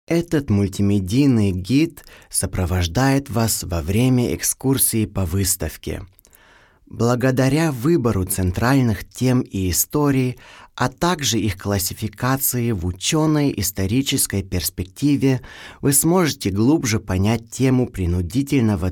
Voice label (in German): Audioguide Russisch